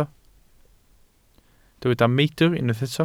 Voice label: "meidr", Welsh